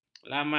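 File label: "laman", Malay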